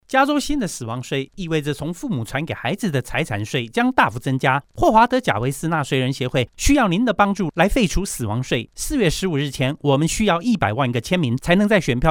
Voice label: Repeal the Death Tax radio ad in Mandarin